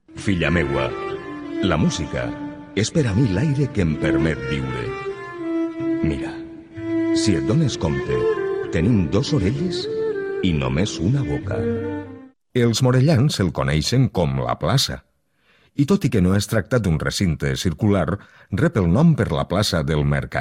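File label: Spanish Spain, male, voice over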